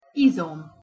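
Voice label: "izom", Hungarian pronunciation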